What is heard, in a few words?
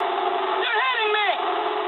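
English aircraft damaged mood med; War Thunder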